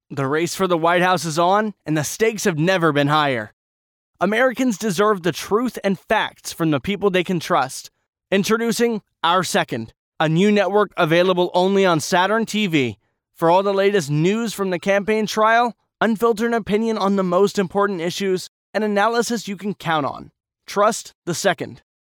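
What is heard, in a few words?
American English, male, voice over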